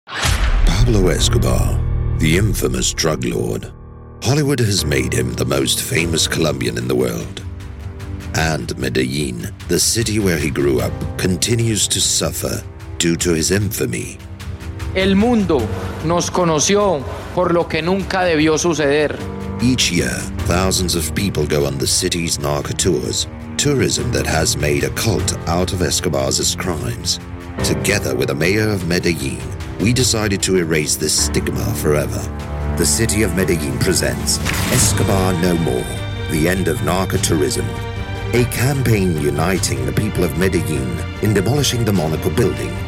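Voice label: British English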